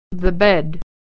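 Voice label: "bed", American English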